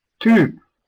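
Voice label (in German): "Typ", German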